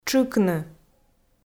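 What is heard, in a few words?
"тшыкны", Komi, female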